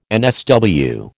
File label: "NSW", American